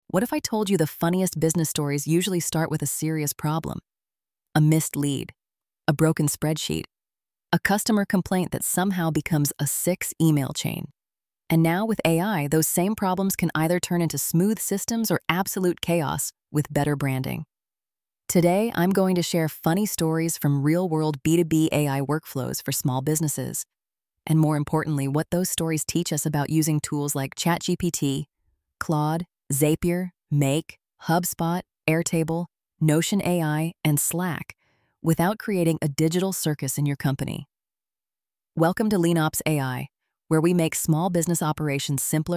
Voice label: voiceover